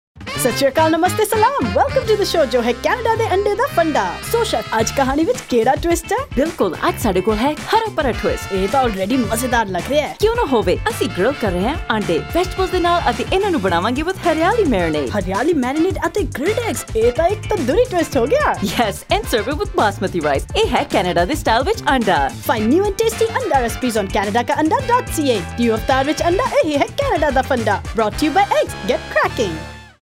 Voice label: Punjabi Spot; EggFarmer; Eggs SA